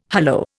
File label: "hullo", Indian